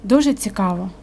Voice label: dialogue, Ukrainian